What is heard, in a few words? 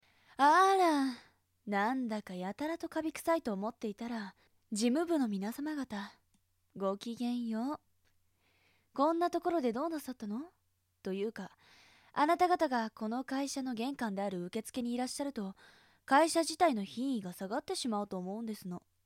woman; 【女性】